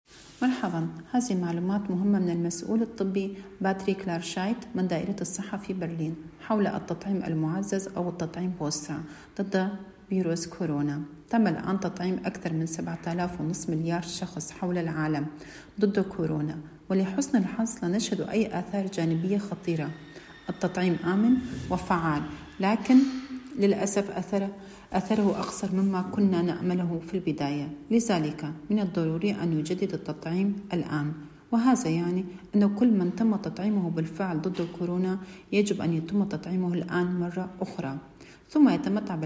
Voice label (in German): booster arabisch